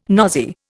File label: "nosy", Indian